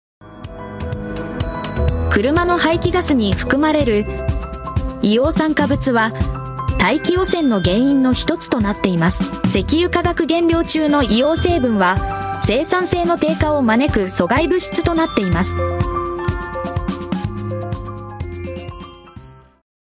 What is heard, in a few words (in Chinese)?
日语女 科技; 外语; 小语种